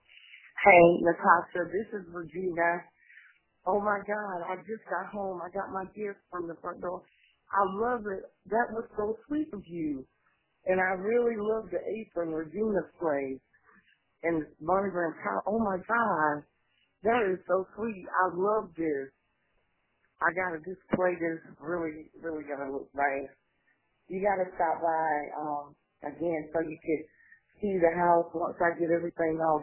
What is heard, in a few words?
voicemail